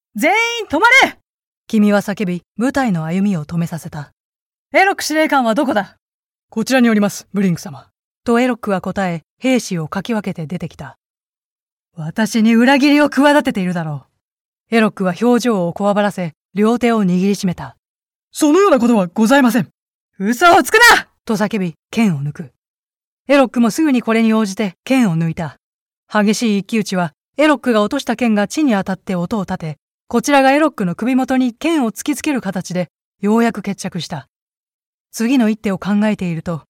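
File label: Japanese audiobook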